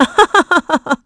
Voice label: Happy; Valance; kings raid; en